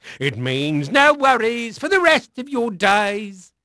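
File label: English male; Pirates Of The Caribbean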